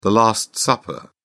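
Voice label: "last supper", British English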